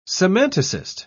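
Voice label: "semanticist", British English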